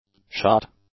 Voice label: "shot", English; nouns